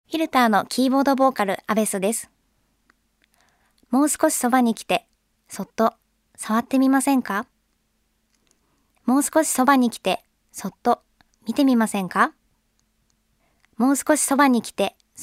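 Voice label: Narration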